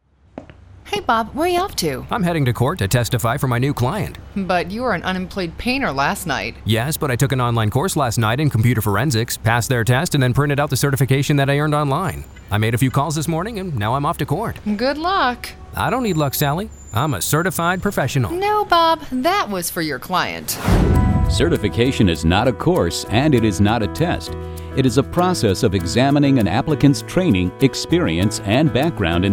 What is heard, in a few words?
commercial